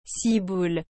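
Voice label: "ciboule", French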